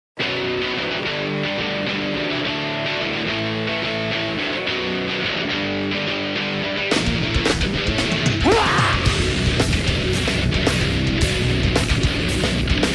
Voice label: poem